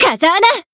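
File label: Voice finish, Japanese; hisumena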